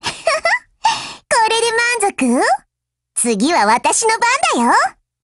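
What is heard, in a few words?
BREAK, Japanese; M4 SOPMOD IIMod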